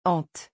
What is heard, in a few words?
"ante", French; pronunciation